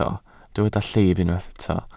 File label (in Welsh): "llif", Welsh